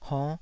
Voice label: "honnh5", Taiwanese syllable